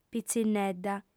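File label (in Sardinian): "pitzinnedda", female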